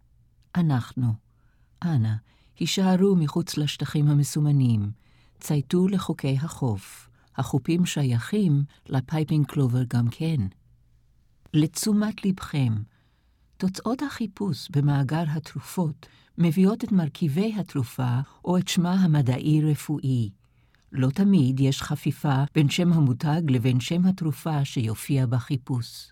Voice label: Hebrew